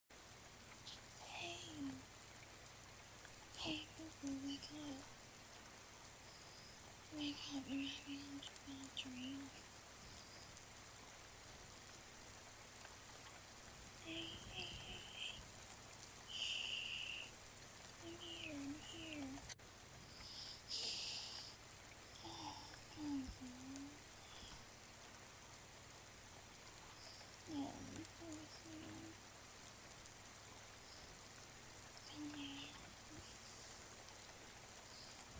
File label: F4A Gentle Rain Sounds Sleep Companion; Nightmare Comfort; GFE; I'm Here; You Are Safe